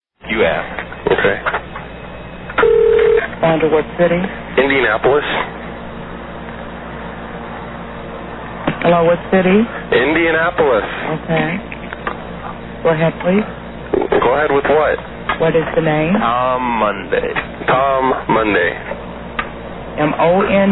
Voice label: information operator muttering; Annoying The Phone Company

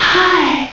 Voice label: sexy hi

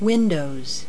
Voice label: "windows", voices

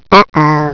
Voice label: UH OH; WORMWRLD

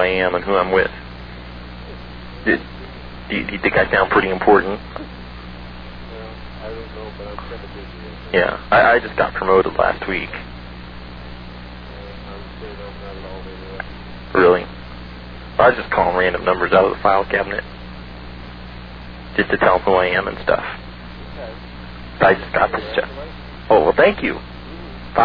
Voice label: corporate security bragging

